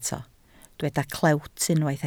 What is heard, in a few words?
"clewt", Welsh